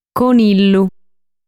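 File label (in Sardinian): "Conillu", Sardinian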